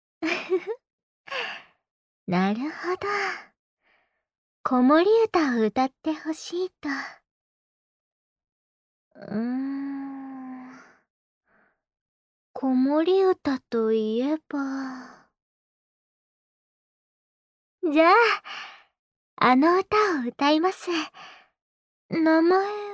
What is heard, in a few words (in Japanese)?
あまあま寝かしつけボイス